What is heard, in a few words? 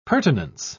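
"pertinence", British English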